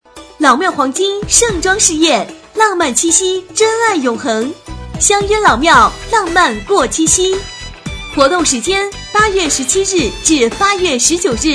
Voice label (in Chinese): 女声老庙黄金珠宝类